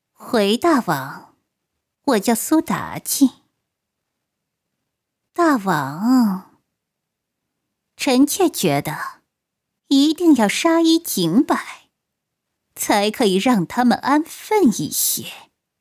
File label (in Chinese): 妖媚